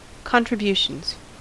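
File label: "contributions", US English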